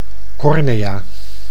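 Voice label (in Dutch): "cornea", Dutch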